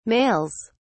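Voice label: "males", English, uk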